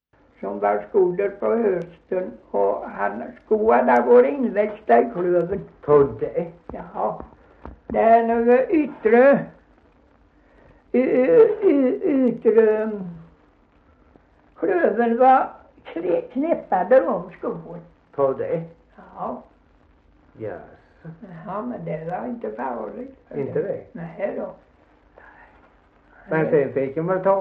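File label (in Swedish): Gunnarp Halland, dialekter; Folkminnen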